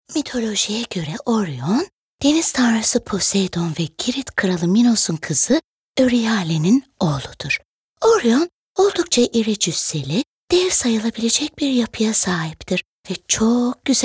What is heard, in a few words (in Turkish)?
ANIMASYON, tr